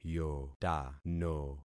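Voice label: "yotano", concatenated syllables; Affixing experiment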